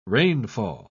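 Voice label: "rainfall", spoken word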